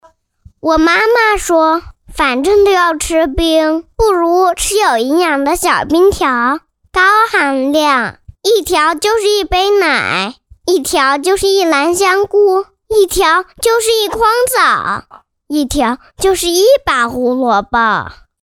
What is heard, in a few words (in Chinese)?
男真童; 真童配音